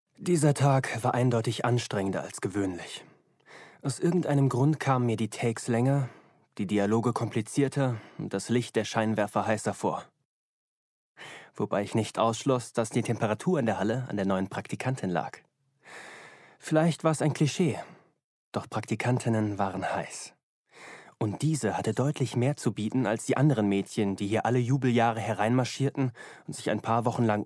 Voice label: AUDIOBOOK